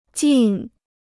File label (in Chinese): "尽", Chinese